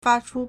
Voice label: "fa1chu1", Chinese

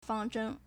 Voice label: "fang1zheng4", Chinese

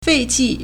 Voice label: "fei4qi4", Chinese

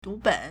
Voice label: "du2ben3", Chinese

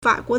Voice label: "fa3guo2", Chinese

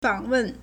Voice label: "fang3wen4", Chinese

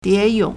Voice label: "die2yong3", Chinese; 蝶泳 diéyǒng; butterfly stroke; In swimming

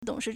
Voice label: "dong3shi4", Chinese

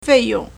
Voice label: "fei4yong4", Chinese

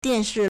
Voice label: "dian4shi4", Chinese

